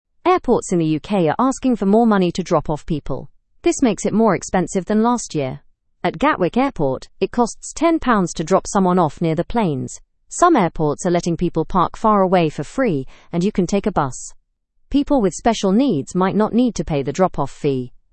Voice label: learn english through news beginner fast; airport drop off fees increase

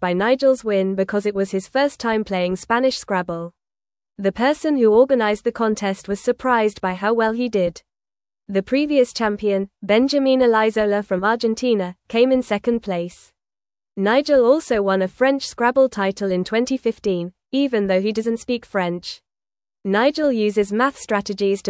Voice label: English news reading, lower intermediate, fast; English Newsroom; Nigel Wins Scrabble Contest Without Speaking

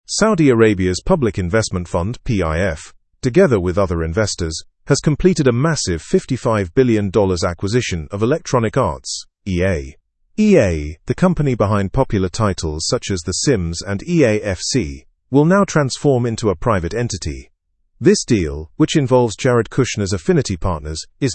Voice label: learn english through news upper intermediate; saudi investment fund acquires electronic arts

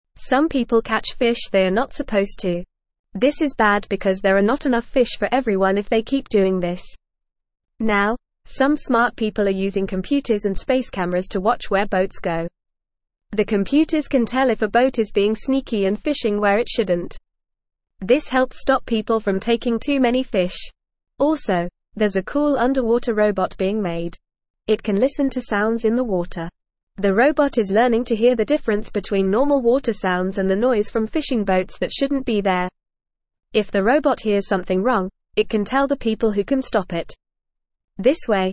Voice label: English FAST Reading; English Newsroom; Using Robots and Computers to Save Fish

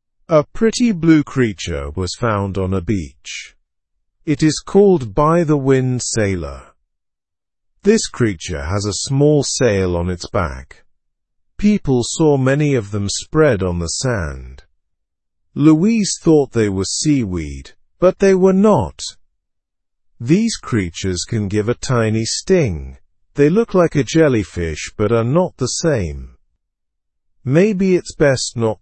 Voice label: english news beginner slow; blue sea creatures wash up on beach

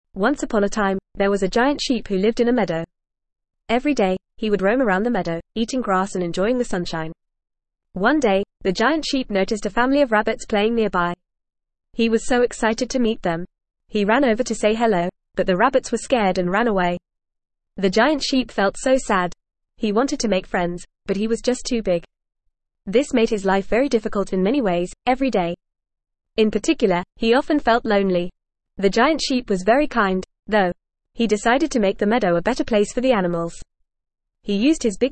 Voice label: ESL Short Stories for Kids FAST reading; The Giant Sheep

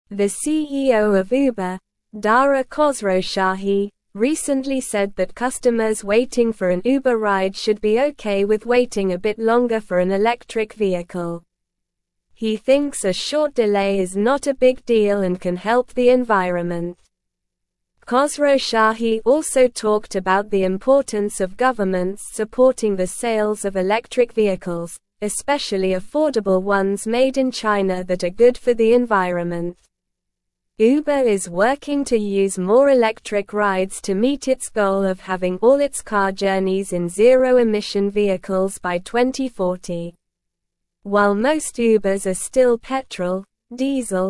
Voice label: English Upper Intermediate SLOW Reading; Uber CEO Urges Patience for Electric Rides Transition

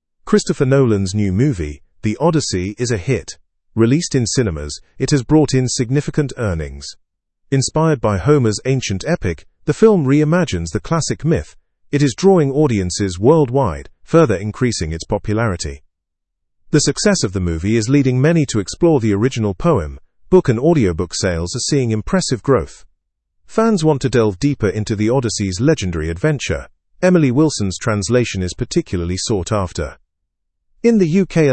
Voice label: english through news upper intermediate; the odyssey film renews interest in classic poem

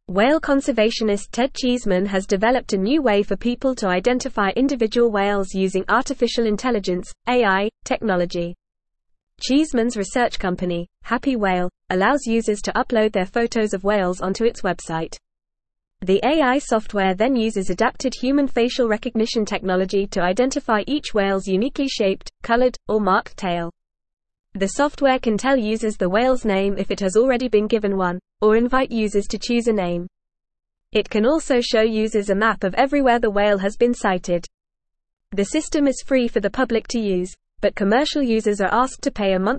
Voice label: Advanced FAST Reading, English; English Newsroom; AI Software Revolutionizes Wildlife Identification and Conser

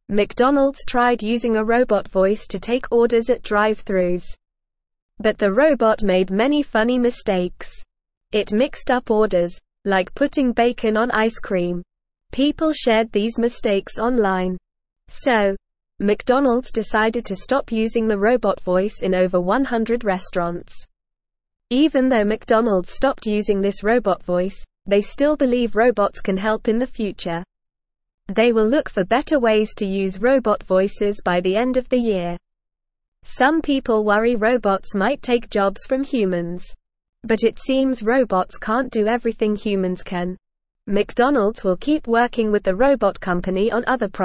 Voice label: English Newsroom Beginner NORMAL Reading; McDonalds Robot Voice Made Funny Mistakes

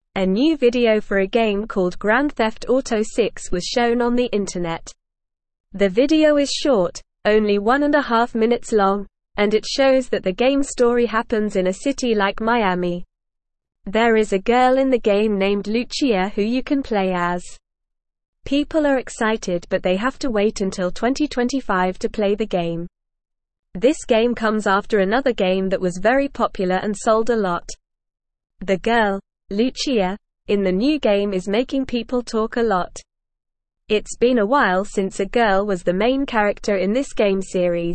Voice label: English Lower Intermediate NORMAL Reading; New Grand Theft Auto 6 video with girl

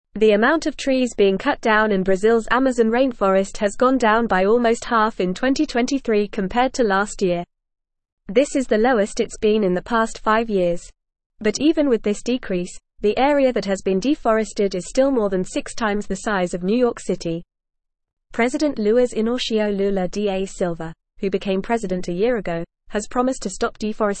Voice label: English Newsroom Upper Intermediate FAST Reading; Brazils Amazon Deforestation Rate Drops by 50

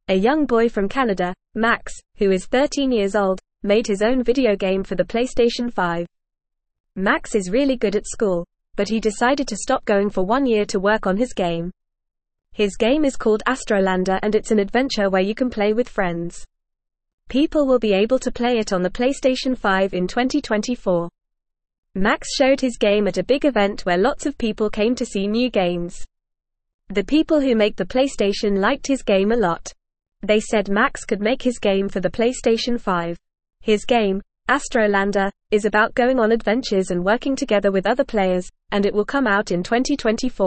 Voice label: English Lower Intermediate FAST Reading

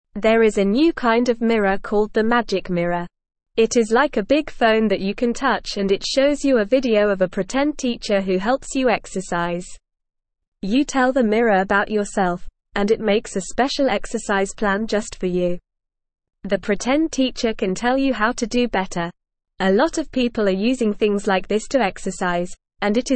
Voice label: Beginner NORMAL Reading, English

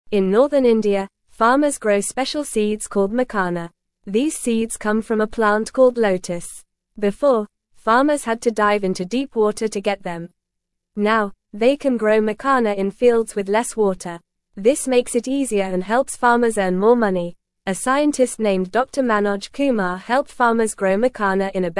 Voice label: English Beginner FAST Reading; Growing Makhana Seeds Helps Farmers Earn More Money

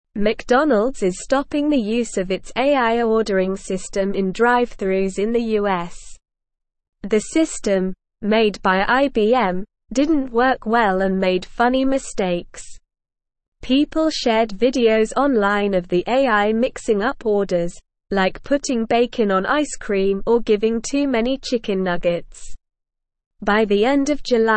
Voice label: English Newsroom Lower Intermediate SLOW Reading; McDonalds Robot Voice Made Funny Mistakes

